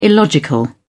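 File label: "illogical", British English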